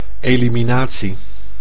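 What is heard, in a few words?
"eliminatie", Dutch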